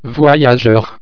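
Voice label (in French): "voyageur", French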